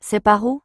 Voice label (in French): "C'est par où", french